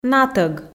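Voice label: "натӧг", Komi, female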